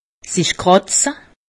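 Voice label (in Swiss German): "kratze", Alsatian; medecine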